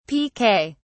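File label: "piquet", British English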